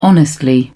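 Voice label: "honestly", British English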